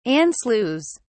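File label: "anschluss", British English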